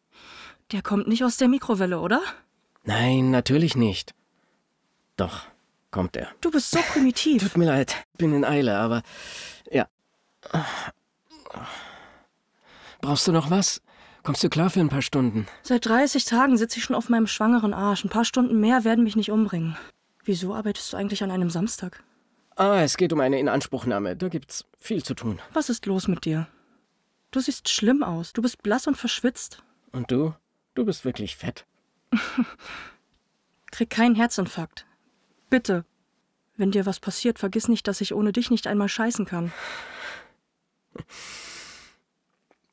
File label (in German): Synchron; Wasserball